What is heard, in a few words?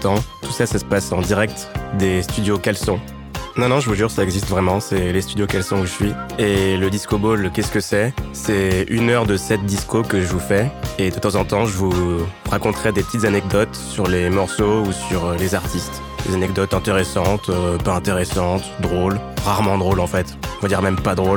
PODCAST; DISCO BOWL